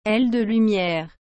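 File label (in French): "ailes de lumière", French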